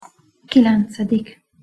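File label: "kilencedik", Hungarian pronunciation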